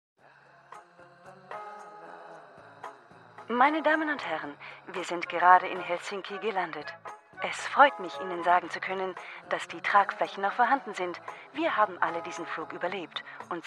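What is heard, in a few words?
German corporate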